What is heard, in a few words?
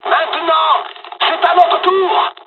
dialog, dialogs french; midway; War Thunder